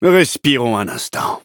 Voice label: levelup, fr; Cookie Run